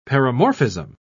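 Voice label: "paramorphism", British English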